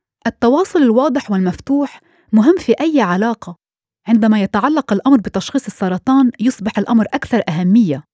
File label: Arabic Female; Bromberg & Associates